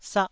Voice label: "sah1", taiwanese syllable